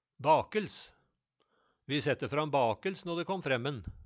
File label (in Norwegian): bakels, Numedalsmål; Vi sette fram bakels nå de kom fremmen